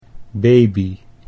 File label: "baby", Dutch